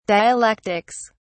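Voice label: "dialectics", British English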